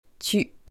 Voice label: "TU", FEMALE, French; personal pronouns pronoms personnels